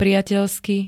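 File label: "priateľsky", Slovak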